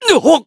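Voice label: Voice damaged, Japanese; zeviro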